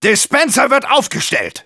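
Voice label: Engineer auto building dispenser, German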